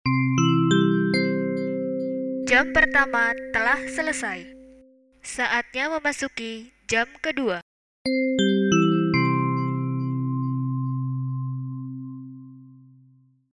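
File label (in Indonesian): Indo masuk Jam, bel sekolah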